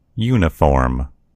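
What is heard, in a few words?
"uniform", American English; gcompris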